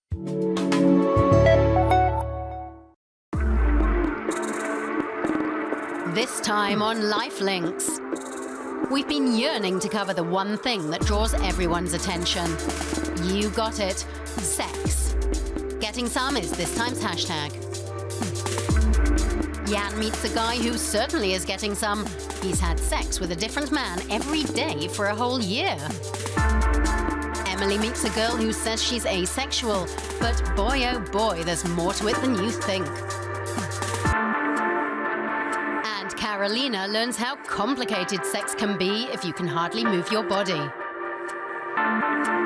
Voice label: VOICE ARTIST tv trailor